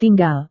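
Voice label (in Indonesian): "tinggal", Indonesian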